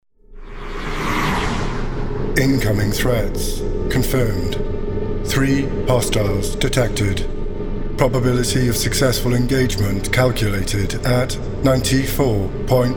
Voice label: AI Robot / Cold, Unnerving, Emotionless, Articulate; naturally resonant voice: warm; Character Animation Demo